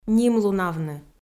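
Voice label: "нимлунавны", Komi, female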